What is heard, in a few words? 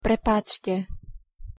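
"prepáčte", Slovak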